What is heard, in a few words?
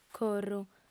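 "corru", Sardinian, female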